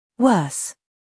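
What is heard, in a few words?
"scandal", American; worse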